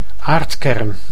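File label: "aardkern", Dutch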